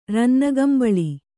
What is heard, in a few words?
"ರನ್ನಗಂಬಳಿ", kannada